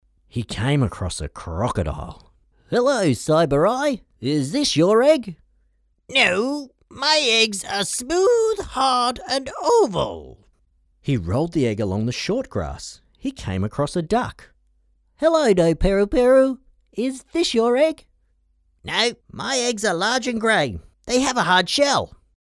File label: Audiobook